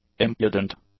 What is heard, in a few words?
"impudent", English; adjectives